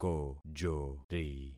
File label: "kojori", concat syllables; Affixing experiment